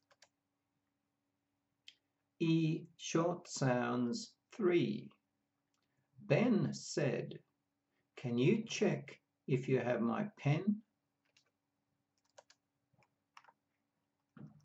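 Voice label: E short sounds, pronunciation of australian english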